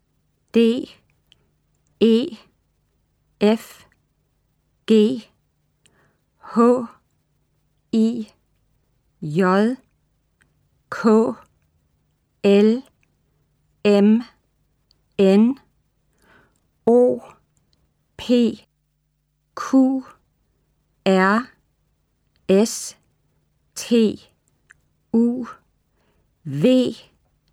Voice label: Pronounciation Vowels, Danish; Colloquial Danish 1